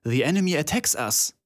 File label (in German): german voice; wyrmsun